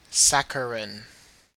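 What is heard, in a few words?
"saccharine", Australian English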